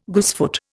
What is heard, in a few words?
"goosefoot", Indian